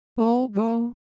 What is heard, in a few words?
Japanese Sound, Ideo Phones